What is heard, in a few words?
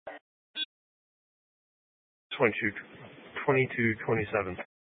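Incident Tactical 1, Scanner audio recording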